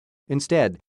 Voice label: "instead", English

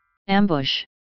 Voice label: "ambush", English

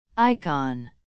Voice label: "icon", American English

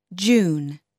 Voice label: "june", English

